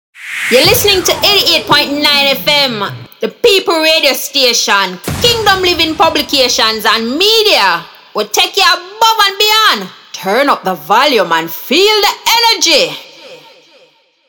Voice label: JAMAICAN FEMALE VOICE